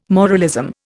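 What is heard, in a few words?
"moralism", Indian English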